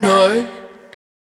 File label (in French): 2 voix